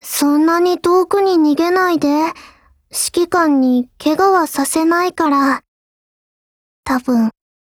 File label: DIALOGUE, JP; ARX160